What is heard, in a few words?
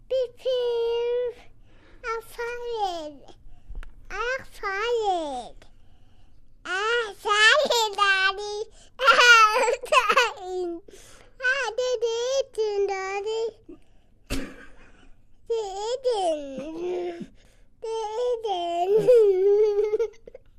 Random Son Talk